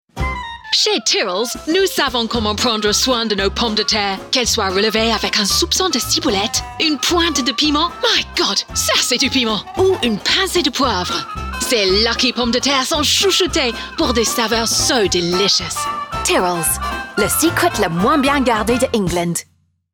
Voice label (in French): fr accent anglais; tyrells